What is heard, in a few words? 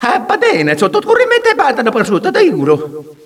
talking, Echo inside caves, underground; DS; ML BOWSERSINSIDE; Mario